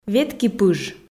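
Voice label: "ветки пыж", Komi, female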